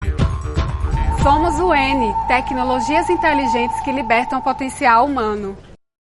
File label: audio recorder sample